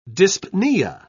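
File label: "dyspnoea", British English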